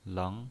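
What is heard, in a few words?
"leng", pinyin, Mandarin Chinese, second tone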